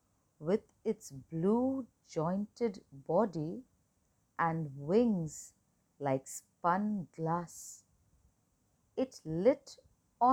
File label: Recitation a dragon